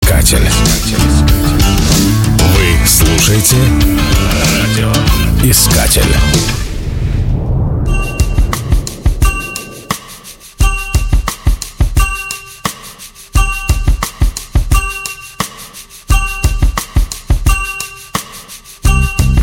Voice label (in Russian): Jingle Big Rock - Вы Слушаете Радио Искатель 95